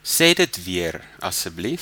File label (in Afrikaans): say again, afrikaans